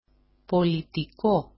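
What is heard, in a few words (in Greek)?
"politiko", Greek; πoλιτικό; political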